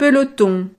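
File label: "peloton", French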